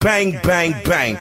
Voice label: "bang bang bang", Voice